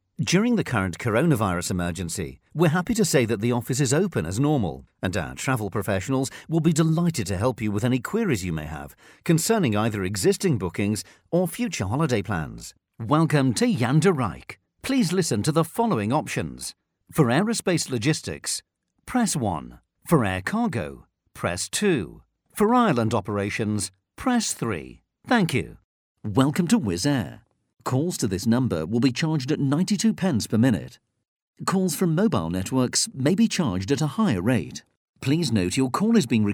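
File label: english british interactive voice response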